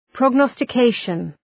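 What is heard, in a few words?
"prognostication", English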